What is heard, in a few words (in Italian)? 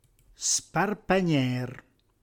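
"sparpagnêr", Reggiano; disperdere, sparpagliare; reggiane; dialetto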